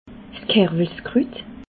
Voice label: "kerwels krut", alsace

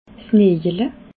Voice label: "najele", alsace

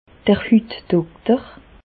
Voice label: "hutdokter", Bas Rhin; medecine; dermatoloque

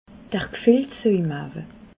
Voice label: "gfilltsoimawe", alsace

